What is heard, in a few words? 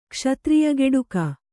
"ಕ್ಷತ್ರಿಯಗೆಡುಕ", Kannada